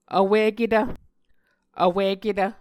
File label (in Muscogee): "vwiketv", Muscogee